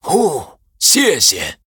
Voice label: Male Human Thanks, zh

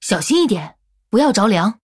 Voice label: Female Human Start, zh

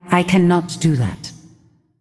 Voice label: Female Ghost ERROR GENERIC

